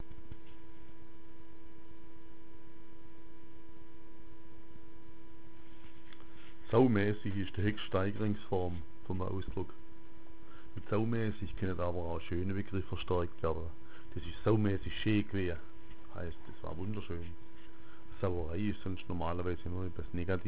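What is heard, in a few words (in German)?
"saumaesich", schwäbisch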